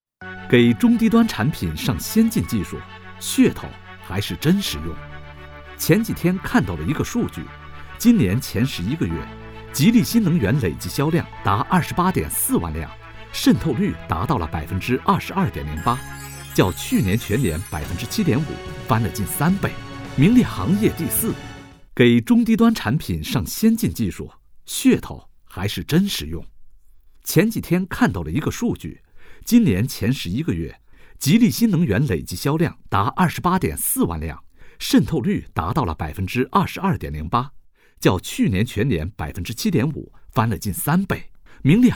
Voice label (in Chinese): 男声试音